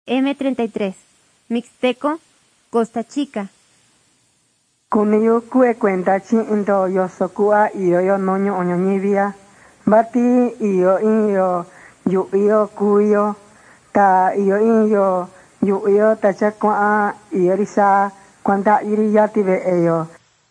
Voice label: mixtec diagnostic spanish mexico